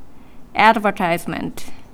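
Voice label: "advertisement", Japanese speaker of English, female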